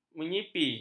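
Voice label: "menyipi", Malay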